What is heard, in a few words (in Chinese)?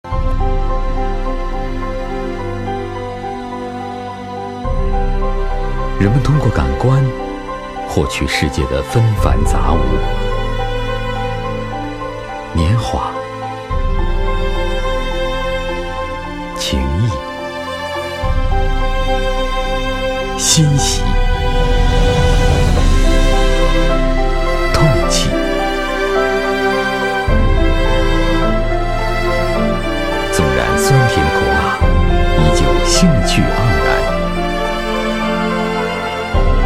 男 颁奖 深情风格; 金瞳奖